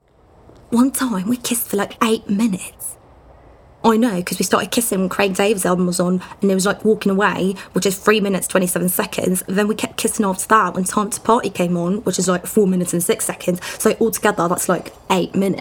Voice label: Voice Character